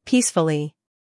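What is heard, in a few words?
"scandal", American; peacefully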